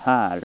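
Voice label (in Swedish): "här", Swedish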